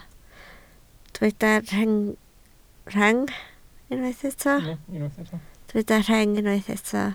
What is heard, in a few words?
"rheng", Welsh